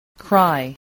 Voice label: "cry", English